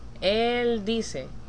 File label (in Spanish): "dice", spanish